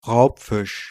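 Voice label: "Raubfisch", German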